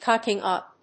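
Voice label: "cocking up", English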